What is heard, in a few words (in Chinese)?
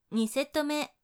第2组, 女生